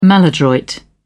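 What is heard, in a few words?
"maladroit", British English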